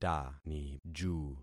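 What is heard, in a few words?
"taniju", concatenated syllables; Affixing experiment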